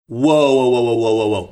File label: quickly saying string of whoas